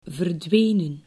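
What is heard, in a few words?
"verdwenen", Dutch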